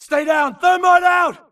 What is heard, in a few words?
grunt using grenade thermite; imc; Titanfall 2